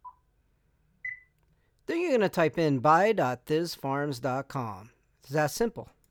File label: Voiceover; Setting up a solana wallet